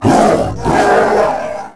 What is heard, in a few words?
wookiee angry, creatures; Star Wars Obi-Wan; XBOX